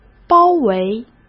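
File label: "包围", Mandarin Chinese; chinese flashcards; hsk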